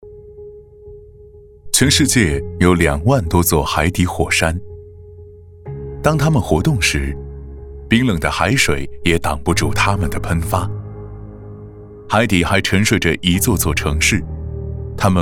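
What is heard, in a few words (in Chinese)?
男【旁白】科普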